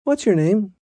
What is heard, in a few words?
Whats Your Name, Questions, male; Talking; VoiceBox